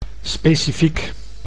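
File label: "specifiek", Dutch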